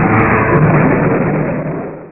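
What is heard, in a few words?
"out", English; Super Games Pak (1991)(Odyssey)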